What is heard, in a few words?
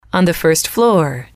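"on the first floor", English, female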